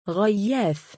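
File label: male "ghaiyaath", Arabic; غَيَّاث; ガイヤース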